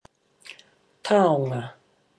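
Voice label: "taonga", Māori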